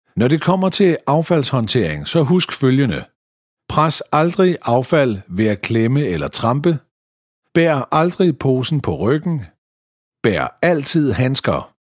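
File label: Danish elearning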